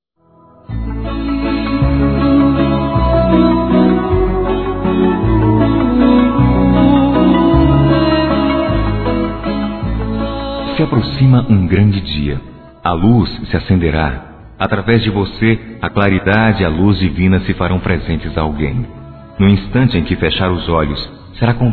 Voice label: Bom Parto Masculino, Telemensagens; Otimismo

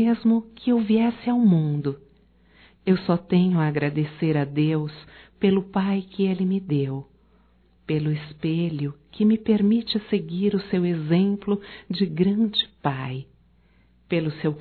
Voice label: Dia dos Pais Falar de Você Feminino

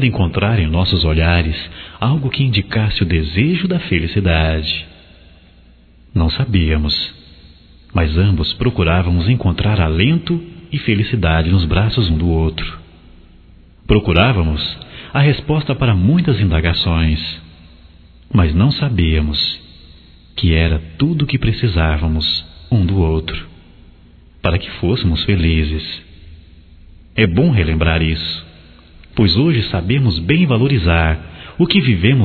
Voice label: Aniv romântico de namoro, masc; Telemensagens 2017; Aniversarios

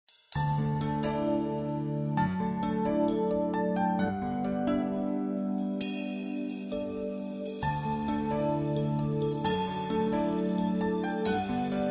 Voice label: PASTOR MASC; Telemensagens 2017; Aniversários; Aniversários diversos

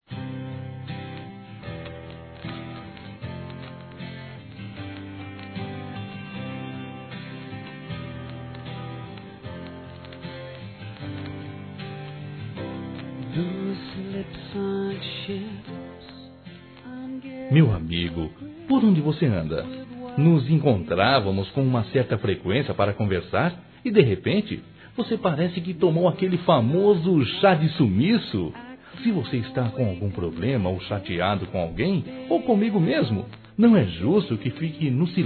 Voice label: romantico Masculino; Telemensagens; Saudades

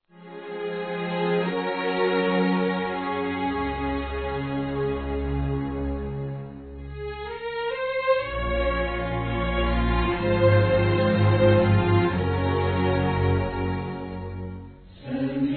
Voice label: PAI, masc; Desculpas; Telemensagens 2017

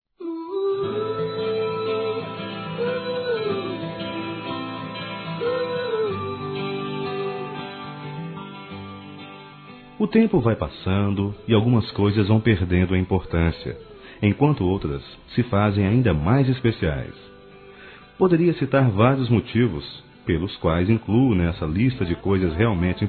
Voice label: Amizade, Telemensagens, Masc

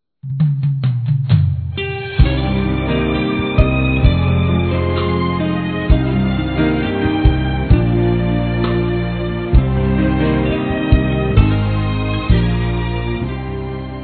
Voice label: ESPOSO EVANGÉLICA F; Aniversários; ANIVERSARIO romantico; fem

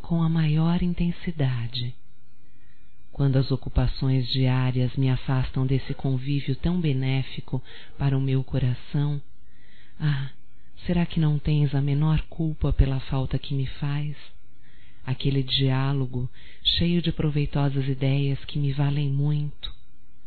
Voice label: SAUDADES ROMÂNTICA - F; Românticas

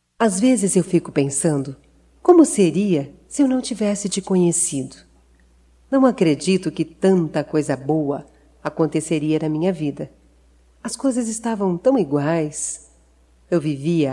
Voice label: Te Amo Feminino; Telemensagens 2017

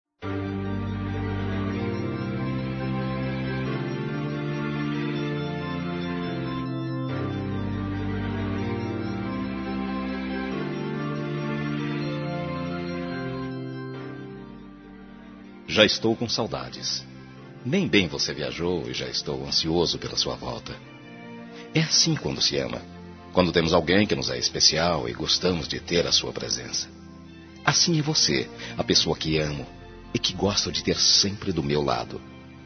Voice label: Boa Viagem Romantica Masculino, Telemensagens; Telemensagens 2017; Românticas

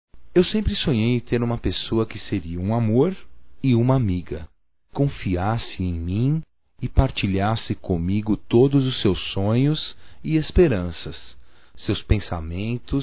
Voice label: PASCOA ROMANTICA, Só Voz, masc; Telemensagens; Telemensagens 2017

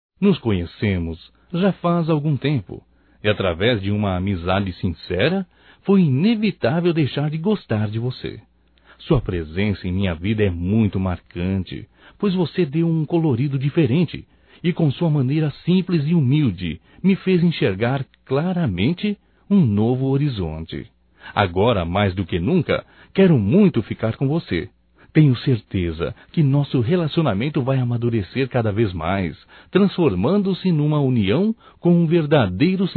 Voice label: FICANDO, Românticas, masc

